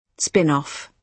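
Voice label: "spin off", British English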